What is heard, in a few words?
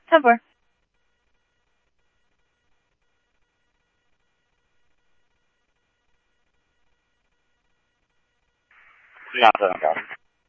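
police department, scanner audio trunk; SCTSDLE PD - PD1 ScottsdalePolice; H-Scottsdale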